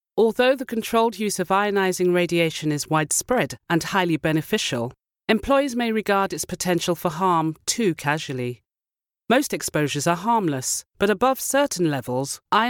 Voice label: English F Healthcare